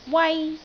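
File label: "wai1", Cantonese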